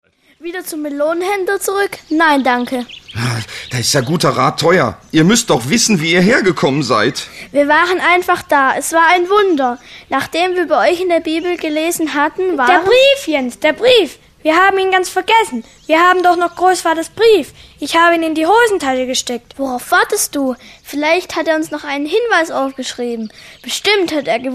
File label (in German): sprechszene